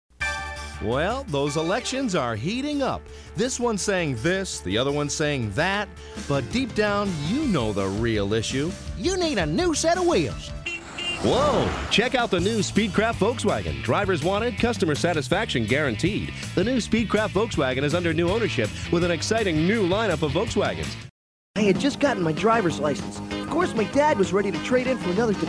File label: Young Voices